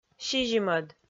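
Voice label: "сизимӧд", Komi, female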